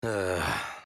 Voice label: Kai Emote Negative

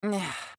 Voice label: Emote Negative; Estelle

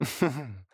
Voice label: Emote Positive

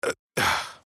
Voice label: Emote Negative; Atlas

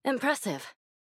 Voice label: Nao Emote Positive

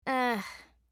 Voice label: Ai.Mi Emote Negative

